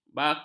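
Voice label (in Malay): "baku", Malay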